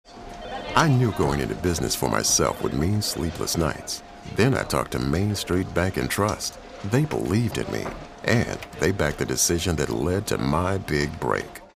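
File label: anti announcer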